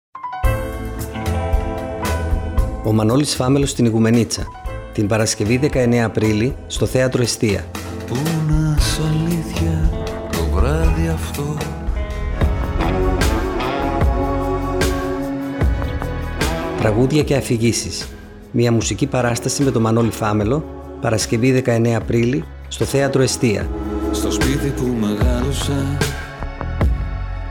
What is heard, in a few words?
Radio spot; Φάμελλος; Εστία